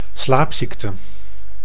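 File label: "slaapziekte", Dutch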